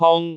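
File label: "hong1", Cantonese; google B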